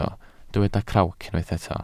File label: "crawc", Welsh